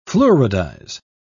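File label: "fluoridize", British English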